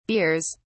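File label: "beers", English, British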